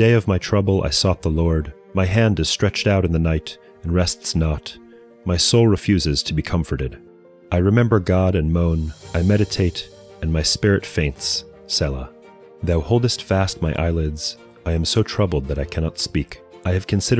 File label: poetry and prayer psalm english; Meir Soloveichik